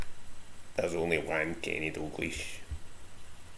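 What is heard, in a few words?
"wan", slang; Ah huvnae seen ye aboot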